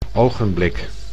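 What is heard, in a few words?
"ogenblik", Dutch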